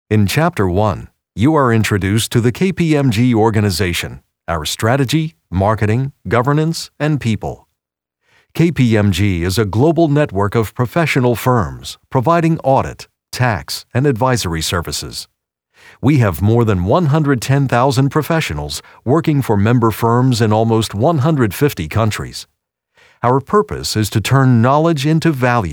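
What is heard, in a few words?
Narration Corporate Male; commercial voiceover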